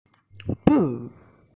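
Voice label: "bu4", Mandarin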